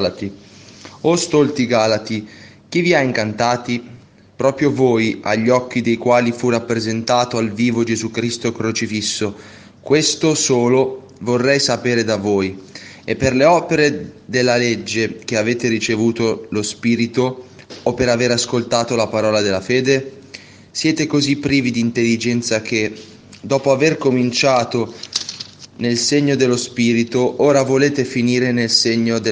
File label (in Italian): Lettura Breve Lodi e Prima Lettura S. Messa